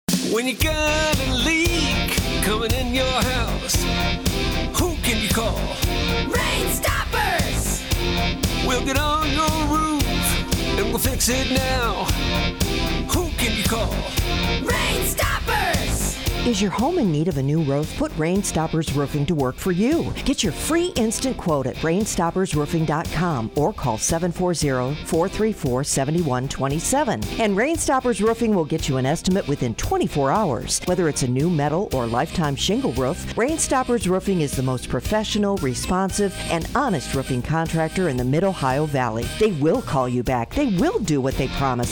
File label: Spec Female voice; Rainstoppers Roofing